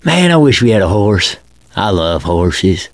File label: chat; l4d2 ellis